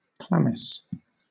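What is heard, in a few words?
"chlamys", English, British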